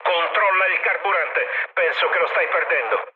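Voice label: Italian tank damaged mood low, speech; War Thunder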